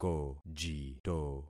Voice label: "kojito", concat syllables; Affixing experiment